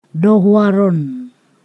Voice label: two already, Ponosakan